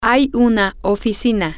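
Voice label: there is an office, Spanish, female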